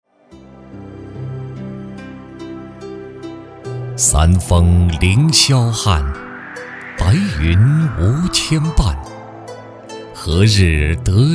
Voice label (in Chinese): 男 旅游 舒缓 优雅; 茅山创建国家5A级旅游景区; 央视老师